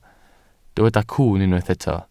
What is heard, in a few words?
"cwwn", Welsh